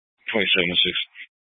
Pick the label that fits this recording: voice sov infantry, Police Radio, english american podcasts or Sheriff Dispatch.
Sheriff Dispatch